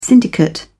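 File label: "syndicate", British English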